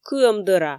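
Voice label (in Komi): "кыӧм дӧра", Komi, female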